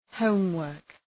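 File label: "homework", English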